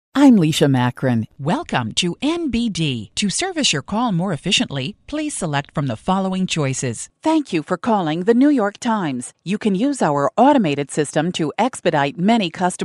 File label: Voicemail